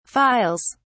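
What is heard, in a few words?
"files", English, British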